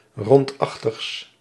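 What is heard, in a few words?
"rondachtigs", Dutch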